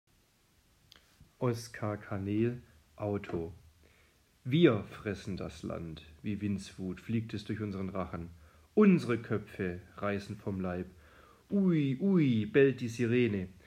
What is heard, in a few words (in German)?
gedicht wir betonung; reiselyrik